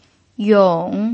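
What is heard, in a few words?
"yong3", Mandarin Chinese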